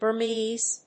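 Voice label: "Burmese", English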